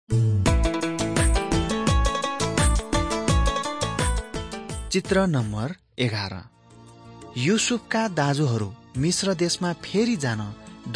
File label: mighty men of god nepali